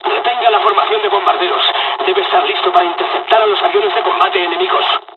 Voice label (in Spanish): dialog, dialogs spanish; War Thunder